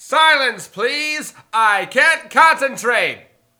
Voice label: Announcer Lose; blackjack